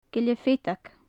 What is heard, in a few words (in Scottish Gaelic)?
"gille-feadaig", gaelic; Faclan Nàdair